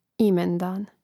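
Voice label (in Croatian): "imendan", hrvatski; mreznik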